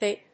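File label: "bip", English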